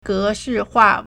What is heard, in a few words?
"ge2 shi4 hua4", Chinese